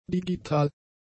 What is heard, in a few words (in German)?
"digital", german; adjectives